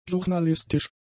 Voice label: "journalistisch", german; adjectives

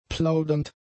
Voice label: "plaudernd", German; adjectives

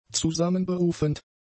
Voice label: "zusammenberufend", German; adjectives

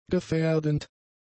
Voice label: "gefährdend", German; adjectives

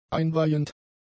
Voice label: "einweihend", german; adjectives

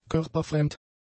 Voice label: "körperfremd", German; adjectives

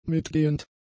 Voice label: "mitgehend", German; adjectives

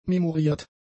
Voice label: "memoriert", German; adjectives

